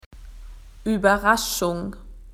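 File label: "surprise", Australian English; PictureDictionary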